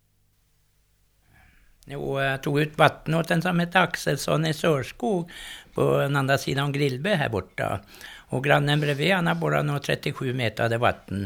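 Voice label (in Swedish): Härkeberga Uppland, Svenska sagor, dialekter; Folkminnen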